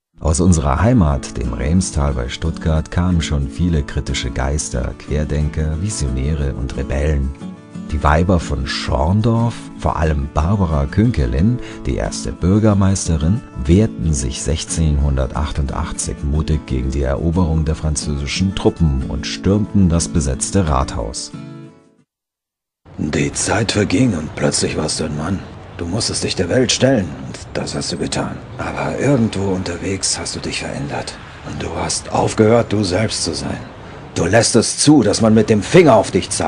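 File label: German, male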